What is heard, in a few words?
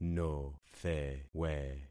"nothewe", concat syllables; Affixing experiment